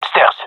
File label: stairs, gendarmerie male; vo; agents